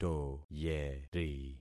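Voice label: "toyeri", concatenated syllables; Affixing experiment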